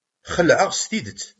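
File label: "Regmeɣ Tom.", Kabyle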